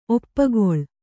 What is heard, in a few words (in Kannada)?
"ಒಪ್ಪುಗೊಳು", Kannada